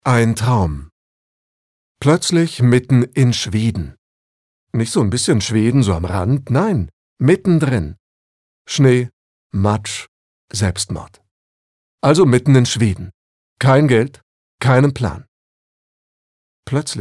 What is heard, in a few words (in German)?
Schauspiel Neutral